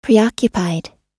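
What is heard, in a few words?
"preoccupied", US English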